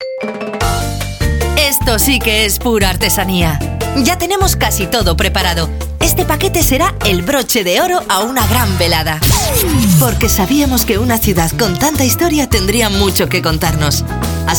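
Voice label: female Castilian Spanish voice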